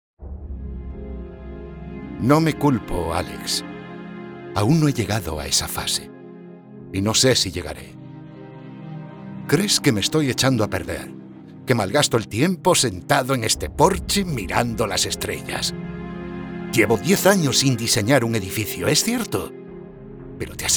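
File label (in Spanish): doblaje España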